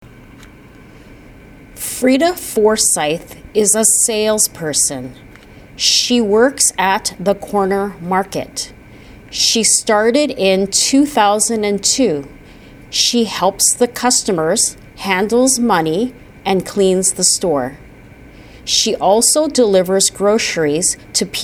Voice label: medium reading